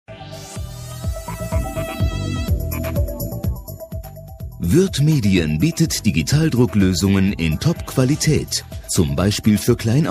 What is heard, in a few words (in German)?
Werbespot; Würth Medien